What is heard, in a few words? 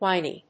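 "winy", English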